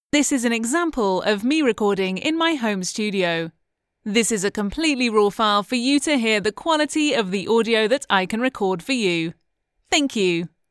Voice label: studio sample